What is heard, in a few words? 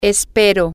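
I hope, spanish, female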